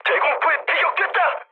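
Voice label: Korean damaged AA mood medium; speech; War Thunder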